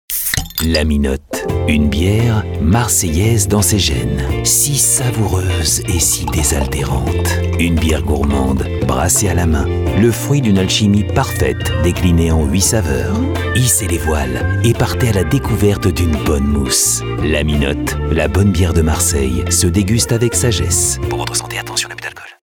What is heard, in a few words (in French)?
Spot; La Minotte